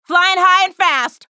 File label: chatter positive; athena; frag